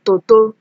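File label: "toto", Nigerian Pidgin, female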